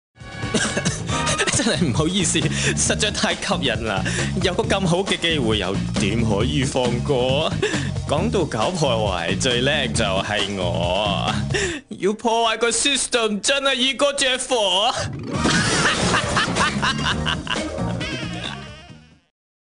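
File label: Cantonese Chinese, Commercial; GoLocalise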